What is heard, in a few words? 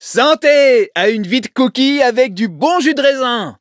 levelup, fr; Cookie Run